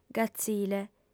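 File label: "gazile", Sardinian, female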